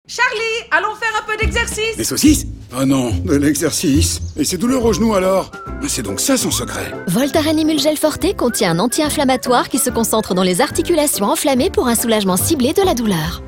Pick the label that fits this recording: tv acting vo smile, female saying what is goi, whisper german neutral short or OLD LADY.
tv acting vo smile